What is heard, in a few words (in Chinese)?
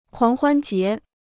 "狂欢节", Chinese